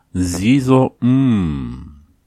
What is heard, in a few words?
cheers, laala